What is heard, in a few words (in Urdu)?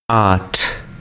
"aat", Urdu